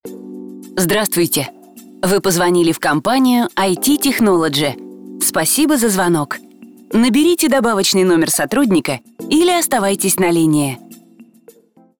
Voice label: IVR